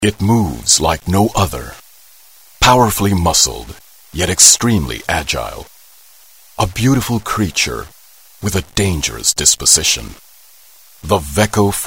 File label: English (Bold)